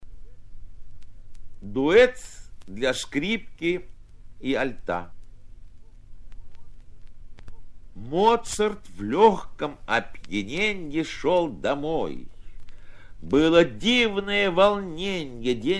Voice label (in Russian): chitaet avtor; Samoylov; Duet dlya skripki i alta; Моцарт в легком опьяненье